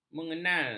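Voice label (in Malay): "mengenal", Malay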